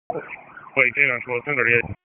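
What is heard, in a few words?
Sheriff's Office Dispatch 1, p25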